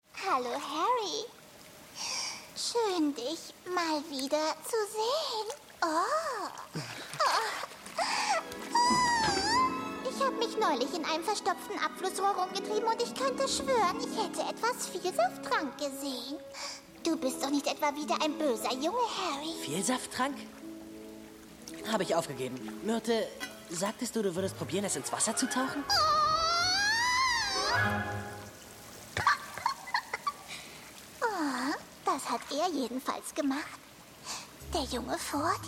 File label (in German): frauen; sprecher; Charge; Harry Potter: Feuerkelch